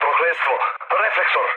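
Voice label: Serbian searchlite mood low, speech; War Thunder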